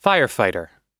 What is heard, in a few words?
"firefighter", us